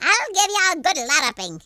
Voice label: "come on then", Irish; speech